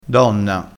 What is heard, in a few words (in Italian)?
"donna", crespinese